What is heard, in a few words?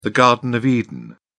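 "garden of eden", British English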